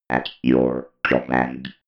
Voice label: robot voice at your command

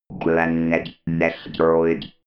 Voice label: robot voice planet destroyed

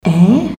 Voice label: "aeh", voices

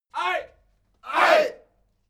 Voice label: military shout aye

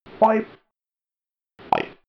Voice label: robot voice five

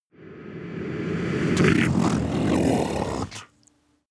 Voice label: demon dark voice reverse demon lord

